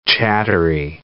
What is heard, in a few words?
"chattery", English